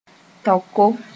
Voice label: "taoko", moore

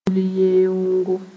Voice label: "bulyẽoogo", moore

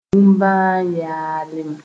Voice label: "bũmbã yalẽ", moore

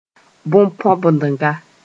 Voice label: "bõn-põbendga", moore

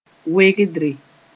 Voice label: "wegdre", moore

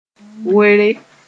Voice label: "were", moore

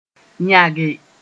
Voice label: "yãge", moore

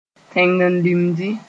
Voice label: "tẽngen-limdi", Moore

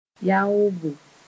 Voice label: "yaoobo", moore

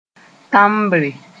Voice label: "tãmbre", moore

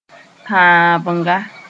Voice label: "tãabenga", moore

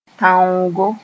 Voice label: "tãoongo", moore; fibrous bark

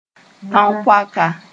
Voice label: "tão-poaka", moore; sister

